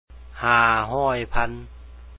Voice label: 500000, Lao; háa hɔ̀ɔj phán